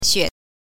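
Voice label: "xue", Mandarin Chinese syllable, neutral tone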